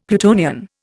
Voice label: "Plutonian", Indian; Dictionary